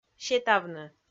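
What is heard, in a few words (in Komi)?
"сетавны", Komi, female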